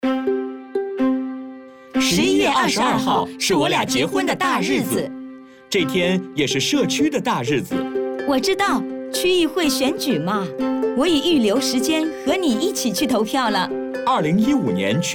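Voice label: Mandarin Radio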